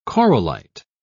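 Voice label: "corallite", British English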